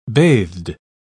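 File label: "bathed", British English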